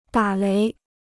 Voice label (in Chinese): "打雷", Chinese